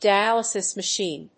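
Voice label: "Dialysis Machine", English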